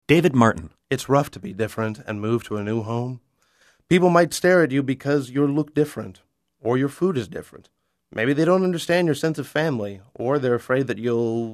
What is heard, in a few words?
commercial male